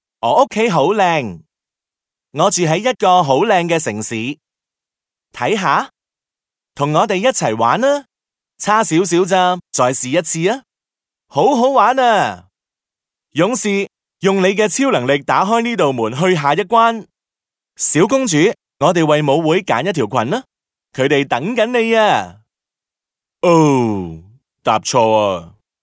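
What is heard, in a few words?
Cantonese male children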